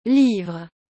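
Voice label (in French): "livre", French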